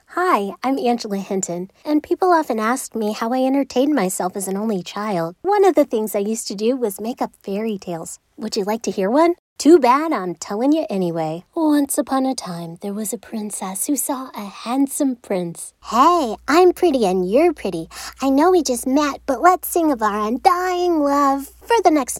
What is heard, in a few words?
Storytime